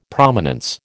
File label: "Prominence", American